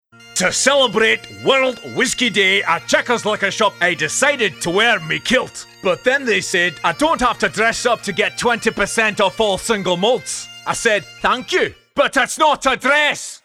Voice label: radio scottish guy